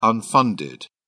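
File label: "unfunded", English, uk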